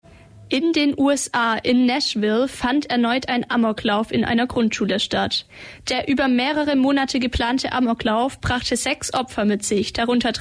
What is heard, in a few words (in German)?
Umfrage Amokläufe